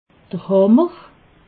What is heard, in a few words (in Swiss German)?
"hammer", alsace